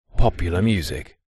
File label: radio male voice says popular music processed